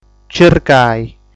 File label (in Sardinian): "Circai", sardu